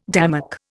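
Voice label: "démarche", Indian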